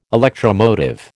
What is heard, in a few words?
"electromotive", American